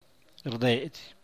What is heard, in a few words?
"ree", San Mateo Macuilxochitl Zapotec